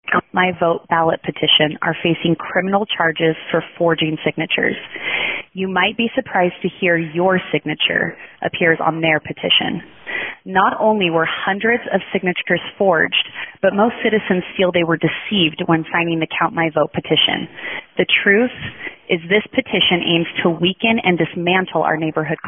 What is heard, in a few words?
Robocall voicemail